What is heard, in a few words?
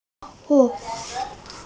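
"arm", Mandarin, hearing-impaired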